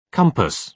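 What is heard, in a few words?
"compass", British English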